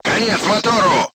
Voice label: voice message engine destroyed, crew tanks russian